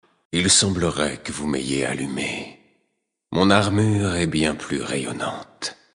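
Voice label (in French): Male Flirt, voix françaises; Draenei Sancteforge; Allume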